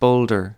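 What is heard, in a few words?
"boulder", English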